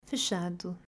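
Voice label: "Fechado", Portuguese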